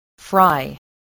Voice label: "fry", English voice, spelling

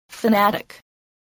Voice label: "fanatic", voice

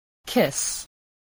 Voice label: "kiss", voice

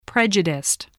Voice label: "prejudiced", voice, spelling

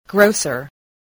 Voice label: "grocer", spelling

